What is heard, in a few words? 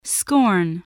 "scorn", english spelling